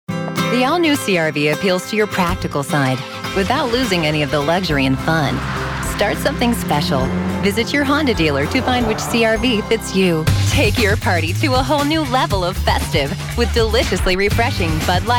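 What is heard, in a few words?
English US female; Fremdtake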